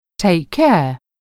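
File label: "takecare", English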